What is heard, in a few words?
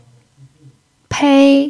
"pei1", Chinese